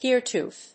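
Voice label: "gear tooth", English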